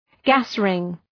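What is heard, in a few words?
"gas-ring", English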